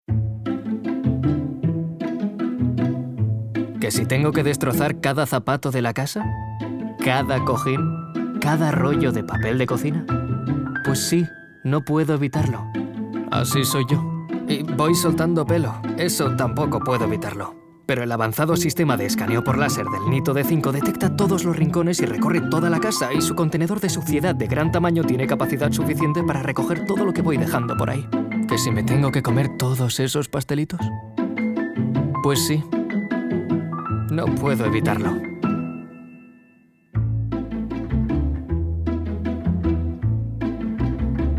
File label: male spanish cleaning comercial